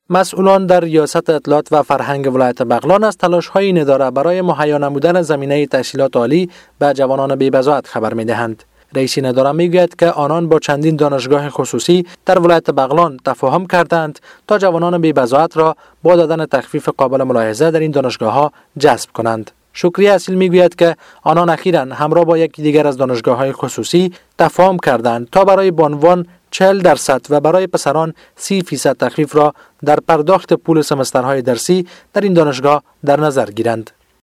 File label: Dari Male